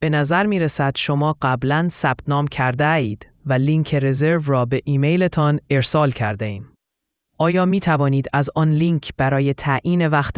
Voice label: persian